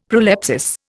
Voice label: "prolepsis", Indian; Dictionary